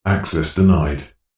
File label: science fiction computer voice male says access denied